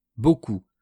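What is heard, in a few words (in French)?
"beaucoup", French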